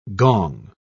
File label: "gong", pronounce